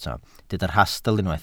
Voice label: "rhastl" Welsh

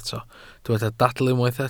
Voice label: "dadl" Welsh

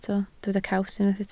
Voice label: "caws", Welsh

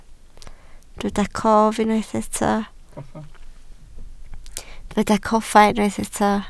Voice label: "coffa", Welsh

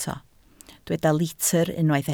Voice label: "litr" Welsh

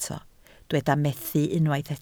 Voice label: "methu", Welsh

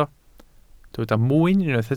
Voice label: "mwyn", Welsh

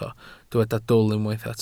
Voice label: "dwl", Welsh

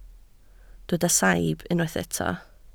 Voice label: "saib", Welsh

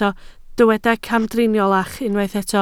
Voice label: "camdriniolach", Welsh

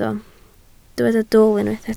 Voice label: "dwl", Welsh

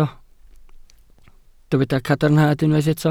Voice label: "cadarnhad", Welsh

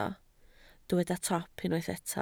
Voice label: "top", Welsh

